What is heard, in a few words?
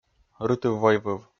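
"рытыв-войвыв", Komi, male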